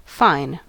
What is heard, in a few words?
"fine", us